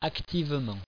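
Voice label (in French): "activement" French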